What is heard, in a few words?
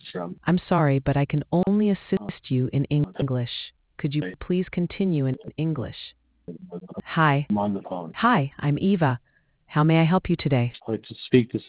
conversation